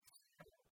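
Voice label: "сорӧм", Komi, female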